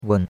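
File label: "wen3", Mandarin Chinese; Cours de Chinois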